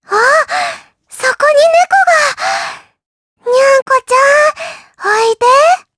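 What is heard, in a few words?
vox dialogue, voices, Japanese; Lilia; kings raid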